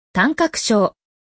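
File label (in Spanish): "mono", español; Relaciona Palabras en Ingles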